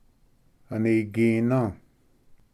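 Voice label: "anigina", Cherokee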